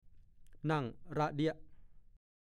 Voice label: "นั่งร่ะเดี๊ยะ", ภาษาโคราช; นั่งเรียงกันเป็นแถวอย่างเป็นระเบียบ

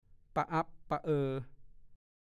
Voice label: "ปะอั๊บปะเออ", ภาษาโคราช; ผะอืดผะอม จะอาเจียนก็ไม่อาเจียน

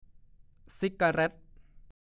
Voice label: "ซิกกะแร่ต", ภาษาโคราช

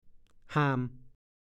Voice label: "ห่าม", ภาษาโคราช

